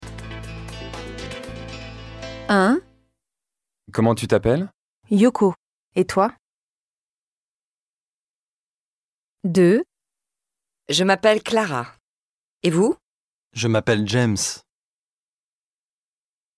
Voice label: French